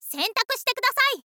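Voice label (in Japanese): sentaku shite, Japanese, girl; voice; info girl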